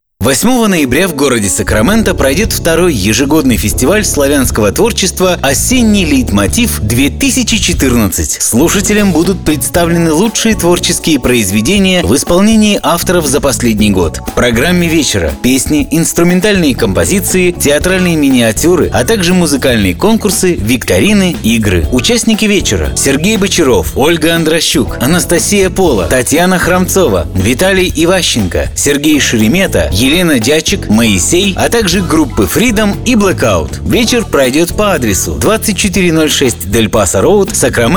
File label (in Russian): Аудиоролик; voices male; Сакраменто